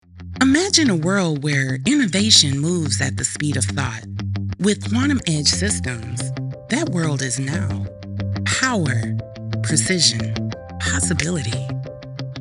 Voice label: Technology Ad Professional Clear Innovative; Verbal VOasis

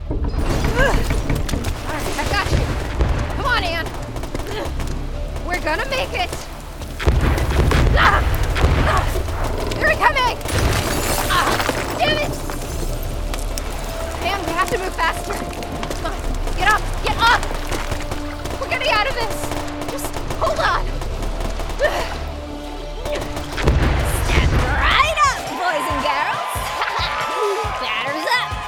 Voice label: Character

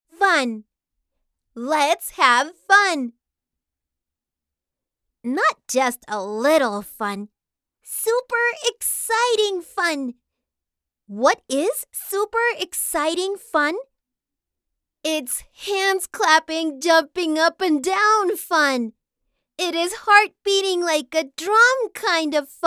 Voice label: fun; English with Hispanic accent; Cam the chameleon

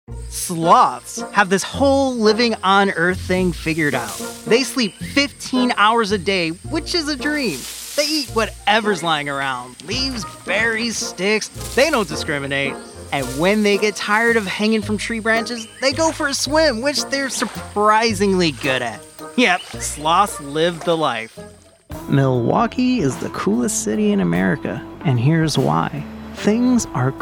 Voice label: Narration, Male

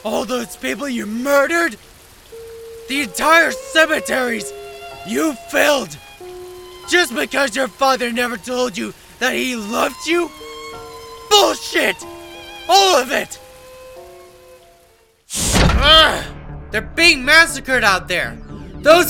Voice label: Animation

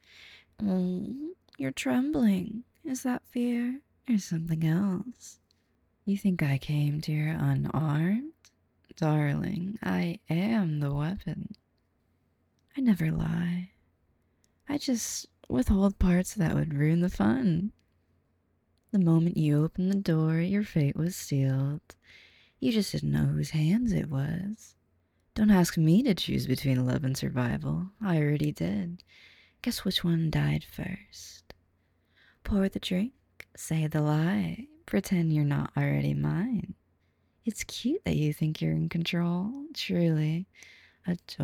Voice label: Dangerous Femme Fatale, voice actor

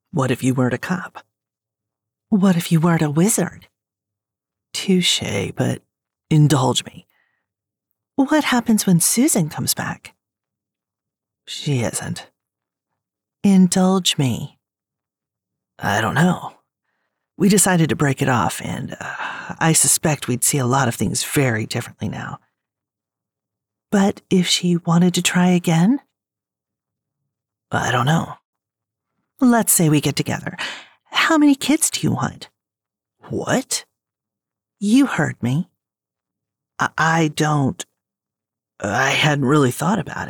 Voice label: MF Dialogue Romance 3rd POV

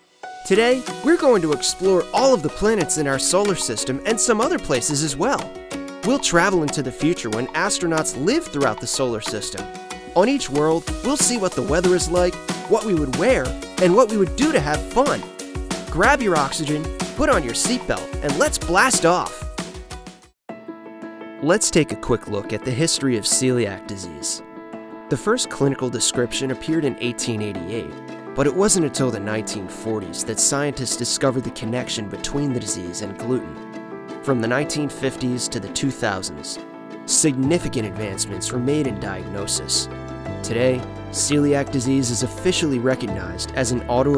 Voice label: Explainer Narrator

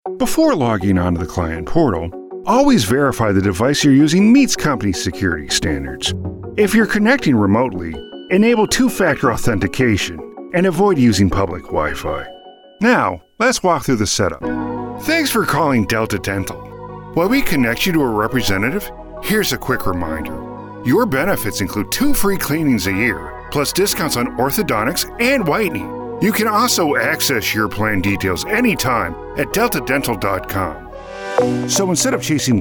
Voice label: Narration

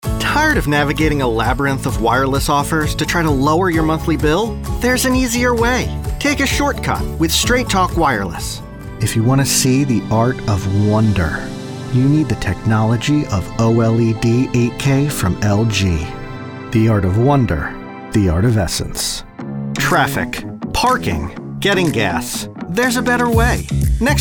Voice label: Commercial VO

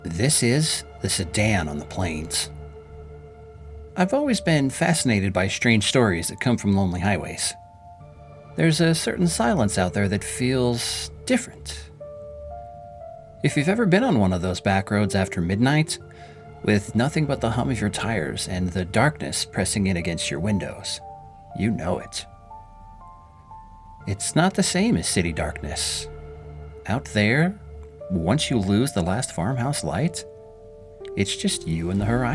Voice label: Spooky Audiobook Narration

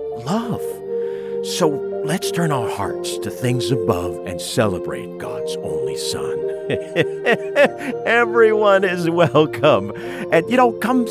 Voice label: Christmas Announcement

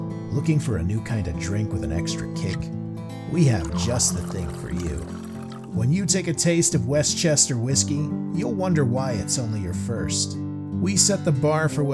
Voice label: Commercial

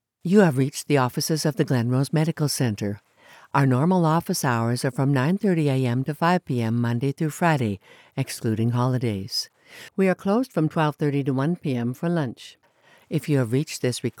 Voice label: Phone

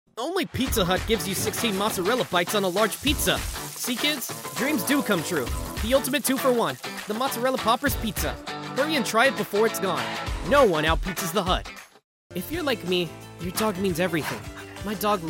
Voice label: Commercial Gig Intro

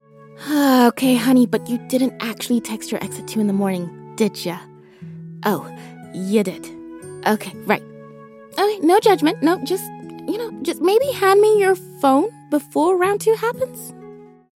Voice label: Nervous Friend

